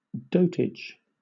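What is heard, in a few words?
"dotage", British English; pronunciations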